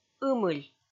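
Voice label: "ымыль", Komi, female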